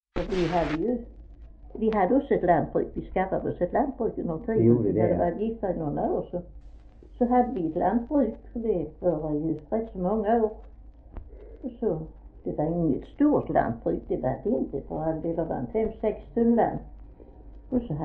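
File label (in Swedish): Fleninge Skåne, dialekter; Folkminnen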